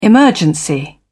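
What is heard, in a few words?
"emergency", British English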